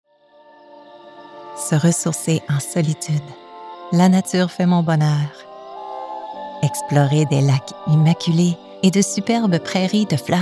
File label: Commercial French; Parcs Canada